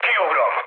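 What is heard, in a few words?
Hungarian bailout mood low, speech; War Thunder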